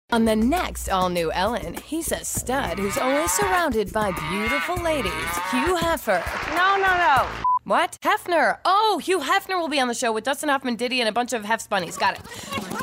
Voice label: promo